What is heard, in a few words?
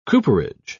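"cooperage", British English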